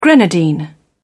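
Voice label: "grenadine", British English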